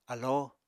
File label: "alóo", Apatani; Material Culture